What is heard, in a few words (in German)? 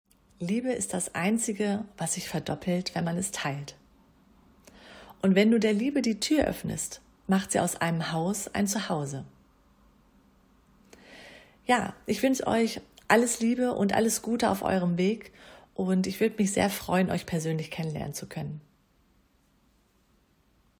Sprachmemo